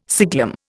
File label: "siglum", Indian